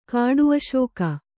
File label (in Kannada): "ಕಾಡುಅಶೋಕ", Kannada